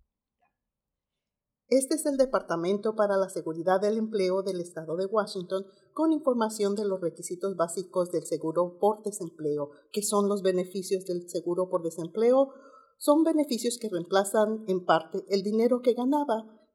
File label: spanish